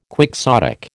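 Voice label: "quixotic", American English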